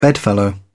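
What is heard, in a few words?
"bedfellow", English, British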